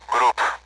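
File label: Speech, Russian, AWACS; DCS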